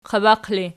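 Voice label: "qabaq" ergative, Archi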